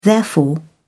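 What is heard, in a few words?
"therefore", British English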